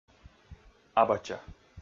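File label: "абача", Komi, male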